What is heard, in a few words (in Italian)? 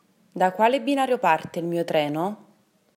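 "Binario", Italian; italybytrain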